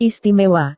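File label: "istimewa", Indonesian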